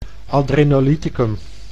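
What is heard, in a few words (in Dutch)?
"adrenolyticum", Dutch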